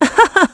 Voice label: Vox Happy; Valance; kingsraid

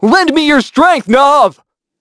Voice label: Vox Skill, en; kingsraid

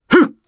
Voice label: Vox Jump jp; Roman; Kings Raid

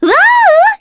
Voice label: vox get, kr; Cleo; kingsraid

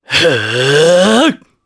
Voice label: Casting, jp; Roi; kingsraid

